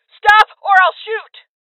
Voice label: Voices Freeze Female

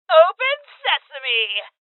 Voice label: Door Kick, Female; Rebel Cops

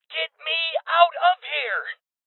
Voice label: Voices Heavy Losses Male; rebel cops 2019 gamerip

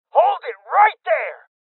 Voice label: Voices Freeze Male Young; rebel cops 2019 gamerip

